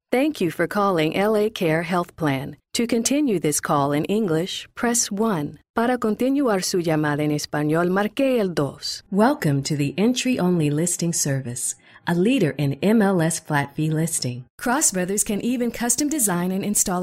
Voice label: IVR